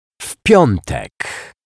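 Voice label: "w Piatek", Polish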